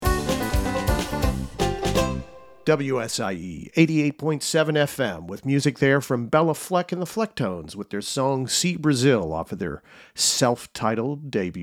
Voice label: Voice DJ aircheck; WSIE